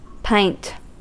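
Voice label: "pint", American English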